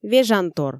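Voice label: "вежантор", Komi, female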